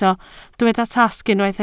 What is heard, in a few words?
"tasg", Welsh